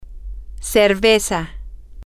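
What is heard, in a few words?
beer, Spanish, female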